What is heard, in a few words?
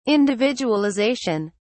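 "individualization", British English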